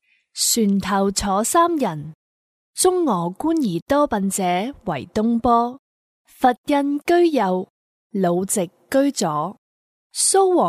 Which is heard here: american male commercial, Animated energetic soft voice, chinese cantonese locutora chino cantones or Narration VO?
chinese cantonese locutora chino cantones